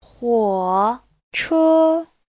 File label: "huo3 che1", chinese